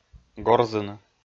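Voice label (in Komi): "горзыны", Komi-Zyrian, male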